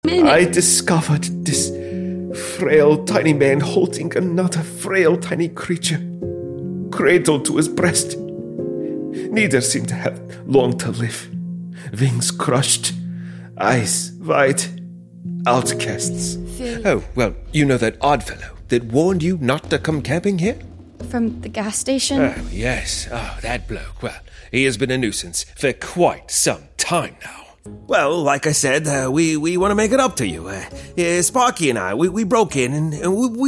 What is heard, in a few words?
CHARACTER ACCENTS